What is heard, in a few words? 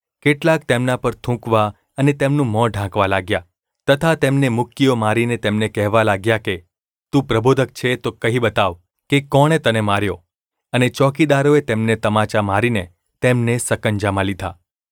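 gu ulb mrk, Gujarati; mrk 14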